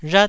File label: "rhat4", taiwanese